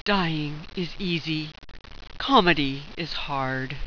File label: "dying is easy comedy is hard", sultry; death